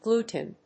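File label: "gluten", English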